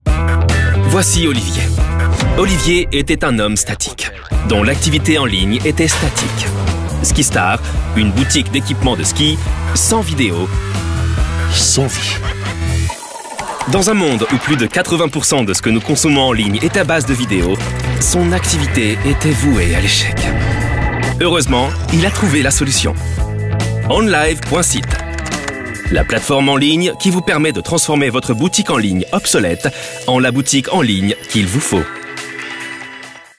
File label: commercial, French, male